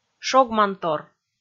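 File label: "шогмантор", Komi, female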